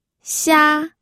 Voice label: "xia1", pinyin